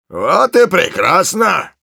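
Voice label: special completed, Russian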